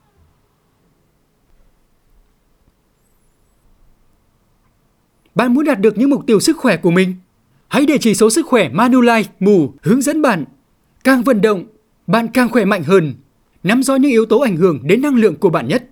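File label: Vietnamese North Male; Manulife Move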